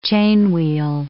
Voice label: "chainwheel", English